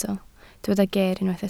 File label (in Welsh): "geer" Welsh